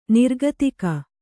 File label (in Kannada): "ನಿರ್ಗತಿಕ", Kannada